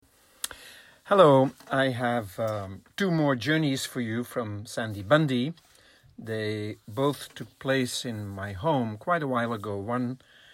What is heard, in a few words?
voiceover with drum